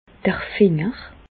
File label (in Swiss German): "d'r Finger", Bas Rhin; medecine; doigt